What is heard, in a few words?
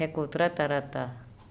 "Ekotratarata", Andamanese; pelvis